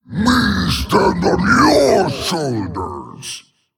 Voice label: Male Giant